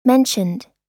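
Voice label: "mentioned", American English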